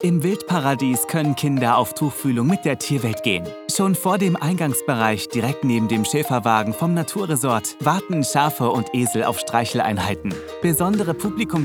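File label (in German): fröhlich kindlich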